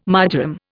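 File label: "marjoram", Indian English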